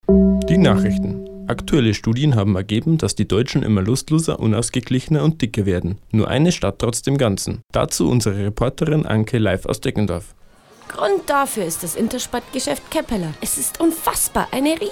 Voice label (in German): Werbung